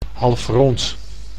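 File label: "halfrond", Dutch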